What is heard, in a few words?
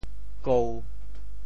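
"kou1", Teochew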